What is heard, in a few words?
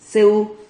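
"ceu", Spanish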